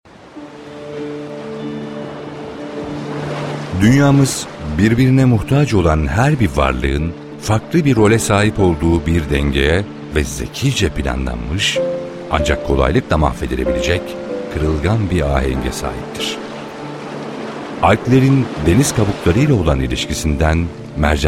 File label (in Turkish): belgesel